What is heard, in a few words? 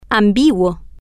"ambiguo", Spanish